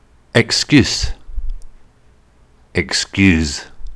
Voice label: "excuse", English; intermediate english pronunciation test